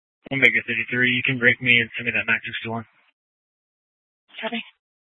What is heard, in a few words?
Central Dispatch, Mesa PD; TOPAZ Regional Wireless Cooperative (TRWC) - Mesa Simulcast